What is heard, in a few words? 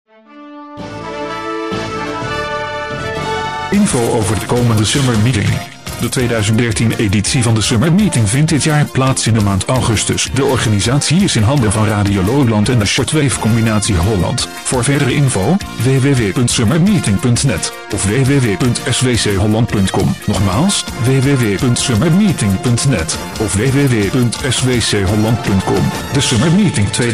PROMO dutch; SUMMERMEETING 2013